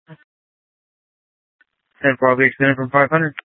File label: Sheriff, scanner